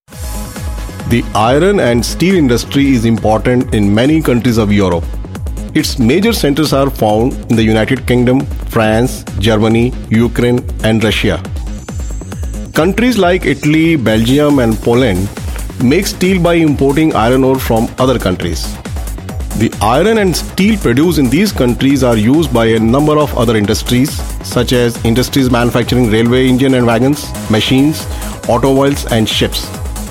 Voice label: NARRATION ENGLISH Indian

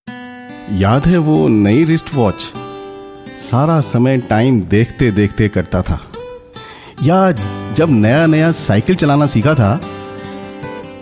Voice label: PRODUCT PROMOTION HINDI

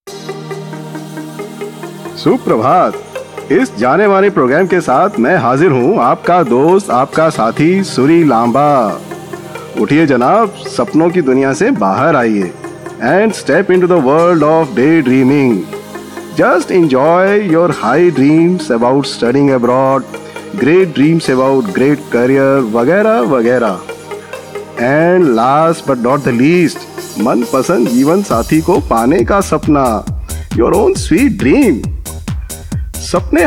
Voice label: RADIO JOCKEY HINDI

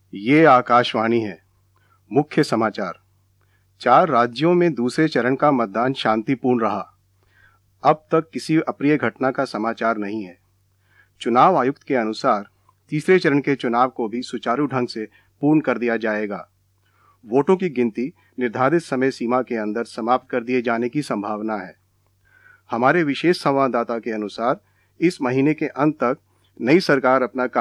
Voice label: News in Hindi

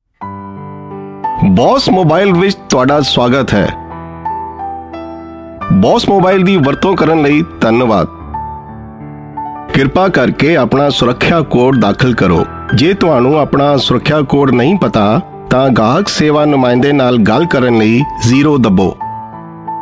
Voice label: PHONE IVR PUNJABI

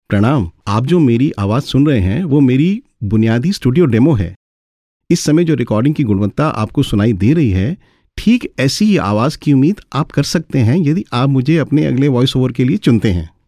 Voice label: E-Learning Audio book Hindi

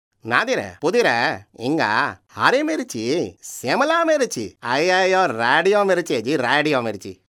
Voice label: F Tamil

